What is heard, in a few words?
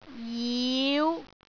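"jiu5", Cantonese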